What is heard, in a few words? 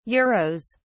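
"euros", British English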